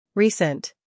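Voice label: "scandal", American; recent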